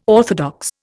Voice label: "orthodox", Indian

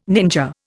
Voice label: "ninja", Indian

